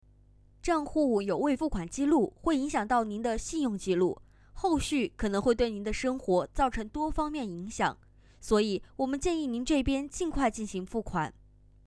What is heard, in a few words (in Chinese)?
严厉 客服